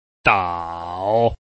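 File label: "dao", Chinese, tone 3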